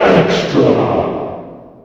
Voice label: VOICEEXTRA